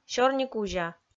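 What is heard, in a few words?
"сёрникузя", Komi, female; kpv audio dict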